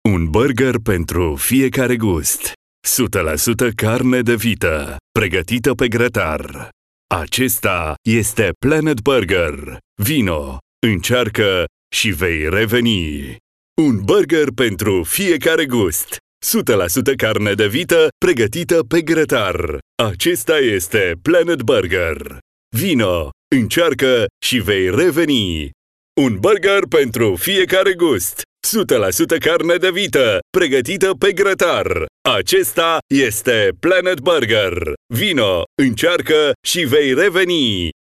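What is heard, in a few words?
Romanian VO Radio Commercial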